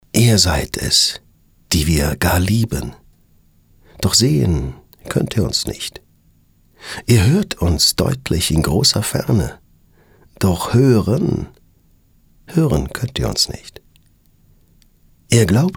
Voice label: poetisch, maenner

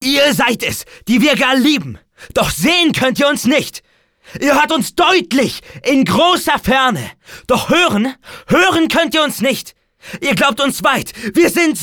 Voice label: aggressiv

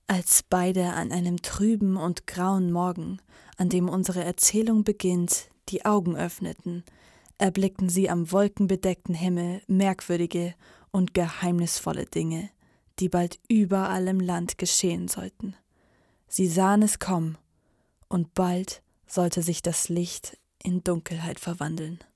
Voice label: maedchen; Licht in Dunkelheit

